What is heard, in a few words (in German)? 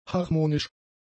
"harmonisch", German; adjectives